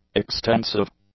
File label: "extensive", english; adjectives